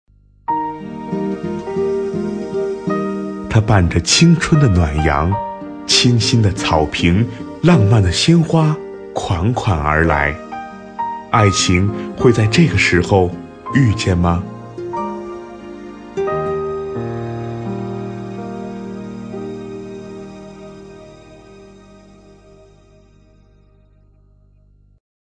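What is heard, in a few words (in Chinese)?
【男专题】讲述类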